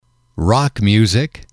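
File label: "rock music", English, male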